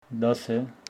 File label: Homshetsi ten